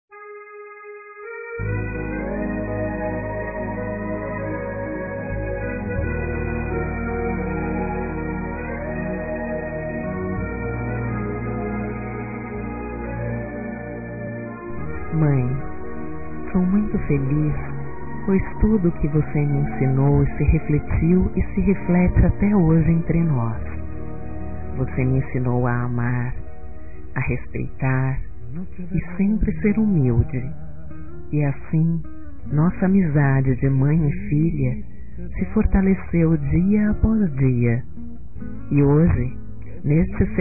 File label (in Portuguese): Dia das Mães Aniversariante Feminino; Telemensagens 2017